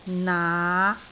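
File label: "naa5", Cantonese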